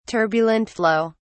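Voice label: "turbulent flow", British English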